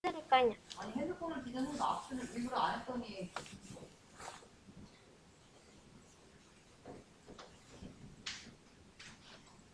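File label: "book", spoken word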